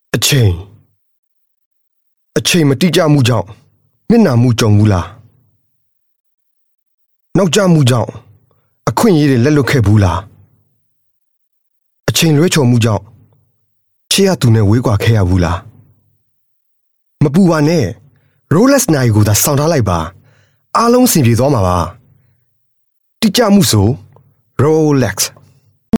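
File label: Burmese m Commercial, male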